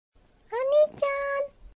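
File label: "onii", Japanese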